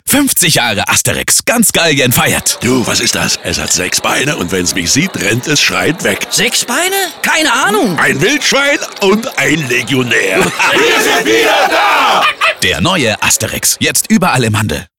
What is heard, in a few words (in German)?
Funkspot; Asterix und Obelix; Sechs Beine; bunch sound; Berlin; Funkspotproduktion